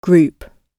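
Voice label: "group", British English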